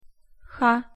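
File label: "х", Russian pronunciation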